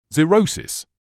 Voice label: "xerosis", medical English